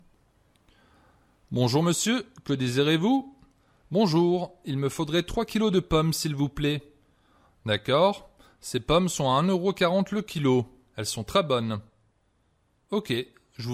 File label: Conversation, French